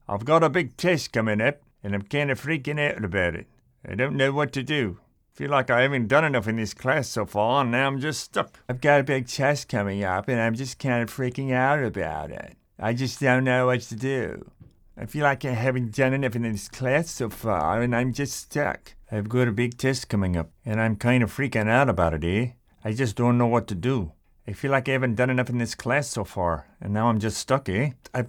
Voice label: Accent

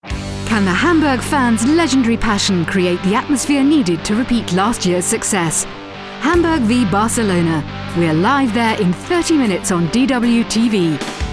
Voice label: TV trailer

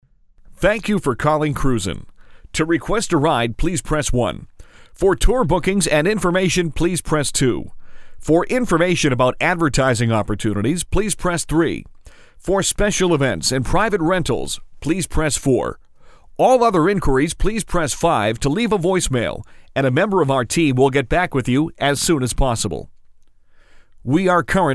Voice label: Phone System

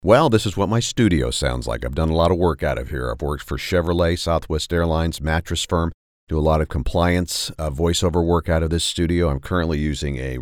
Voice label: studio sample

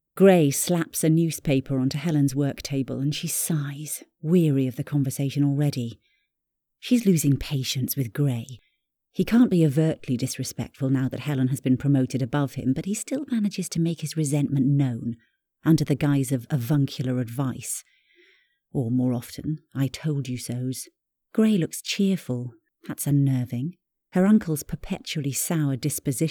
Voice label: SF Narration

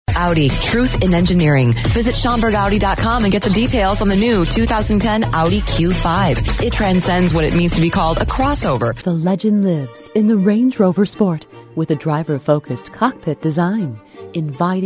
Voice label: Car Spots

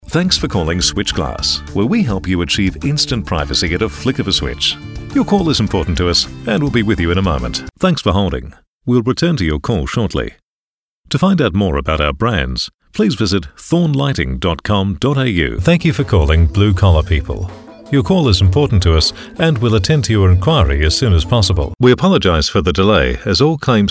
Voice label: phone

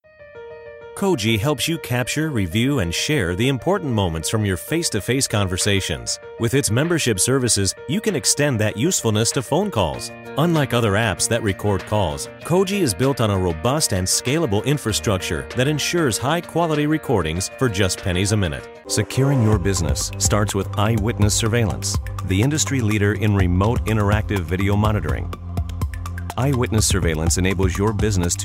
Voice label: Product Narration

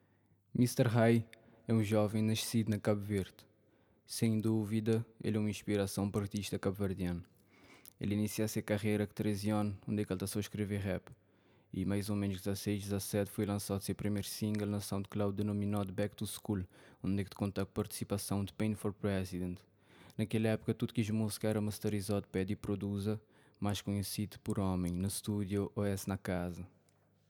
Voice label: Cape Verden Creole male

